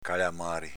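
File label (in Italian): "calamàri" Veneto dialect; s.m. inv. occhiaie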